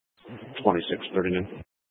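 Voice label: Sheriff Dispatch, Scanner audio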